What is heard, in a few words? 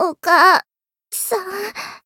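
Voice retire Japanese; may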